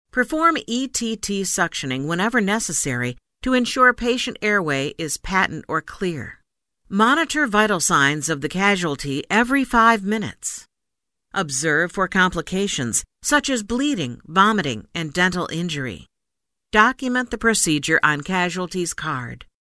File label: E Learning first aid head injury military